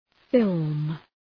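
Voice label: "film", English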